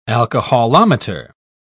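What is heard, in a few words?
"alcoholometer", British English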